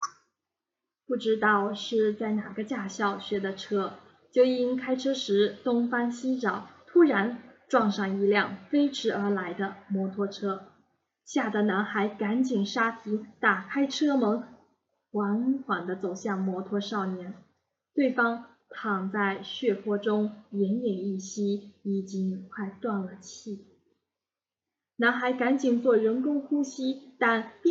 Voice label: 小说; 男孩的摩托车事故

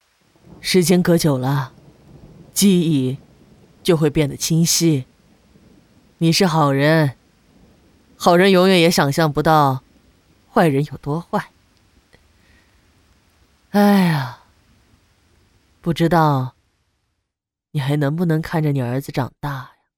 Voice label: 坏人老太太

